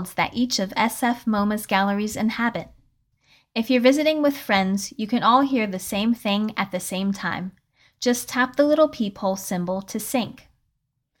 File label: Museum Tour Sample